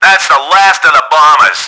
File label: airstrike over, english; Battle Engine Aquila; XBOX